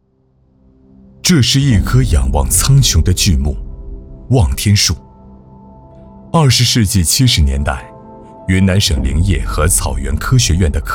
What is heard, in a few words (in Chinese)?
男, 纪录片; 绿意守望 生态远航